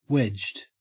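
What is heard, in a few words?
"wedged" English, Australian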